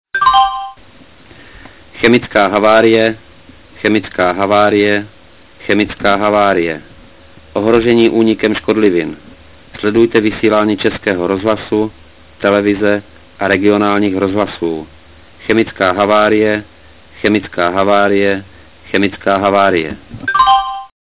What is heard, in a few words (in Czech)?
verbalni chemicka havarie, sireny